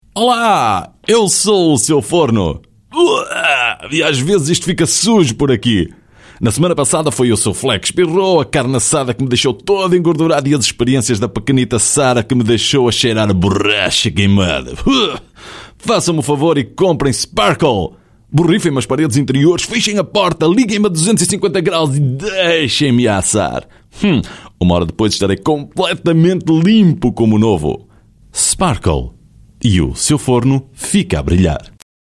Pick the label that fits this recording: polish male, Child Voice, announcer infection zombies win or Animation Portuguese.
Animation Portuguese